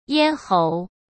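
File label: "咽喉", Mandarin Chinese; chinese flashcards; hsk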